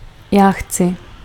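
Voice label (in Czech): "já chci", Czech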